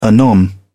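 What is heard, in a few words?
"anon", British English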